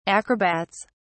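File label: "acrobats", British English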